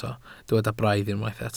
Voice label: "braidd", Welsh